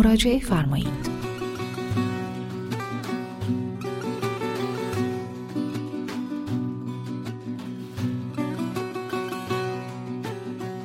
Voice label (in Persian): تلفن گویای مجازی